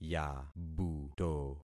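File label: "yabuto", concatenated syllables; Affixing experiment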